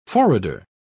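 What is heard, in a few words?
"forrader", English, British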